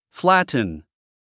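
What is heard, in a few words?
"flatten", 英単語 発音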